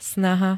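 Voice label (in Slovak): "snaha", Slovak; úsilie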